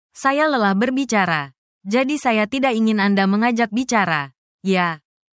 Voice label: indonesian phrases